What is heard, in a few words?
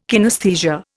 "kinesthesia", Indian